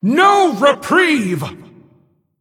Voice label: Female Titan Keeper Attack